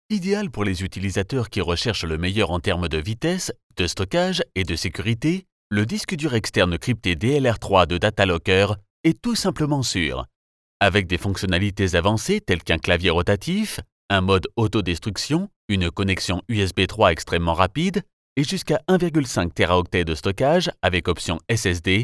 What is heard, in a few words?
french narration